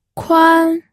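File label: "kuan", first tone, pinyin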